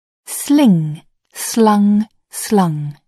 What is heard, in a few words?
"sling", English